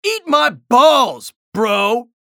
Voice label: chatter negative; anarki; respawn

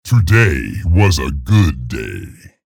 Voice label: chatter positive; scalebearer; frag

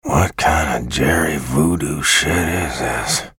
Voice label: chatter neutral; bj blazkowicz

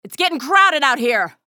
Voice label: chatter negative; athena; respawn

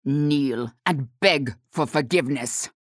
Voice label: unholy paladin taunt; galena

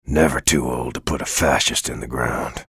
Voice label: chatter positive; bjblazkowicz; frag

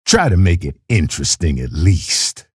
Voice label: chatter positive; keel; frag

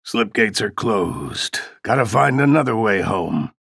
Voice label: chatter negative; ranger; respawn

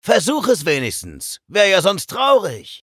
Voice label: vo chatter negative; eisen; respawn

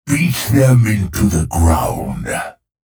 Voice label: chatter neutral; clutch

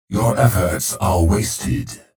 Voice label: chatter positive; clutch; frag

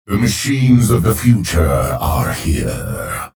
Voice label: chatter positive; clutch; frag

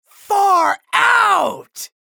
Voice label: chatter positive; anarki; frag

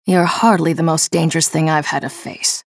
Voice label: chatter neutral; nyx

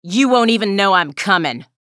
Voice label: chatter neutral; athena

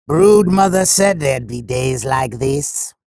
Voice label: chatter neutral; sorlag

